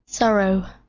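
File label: "sorrow", English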